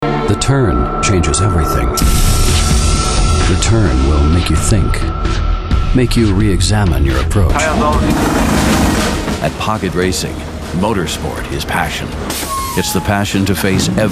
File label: english american automotive